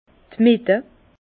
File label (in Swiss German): "mitte", Bas Rhin; le centre; vin